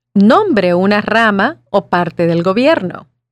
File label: Spanish